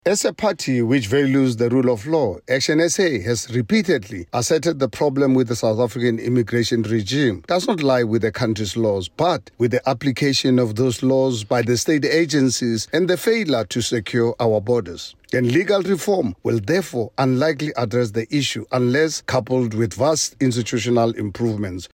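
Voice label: English; Reforms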